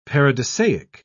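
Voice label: "paradisiac", British English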